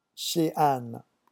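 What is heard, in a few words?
"chez Anne", French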